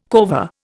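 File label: "corvée", Indian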